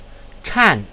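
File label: "chan", Mandarin Chinese, fourth tone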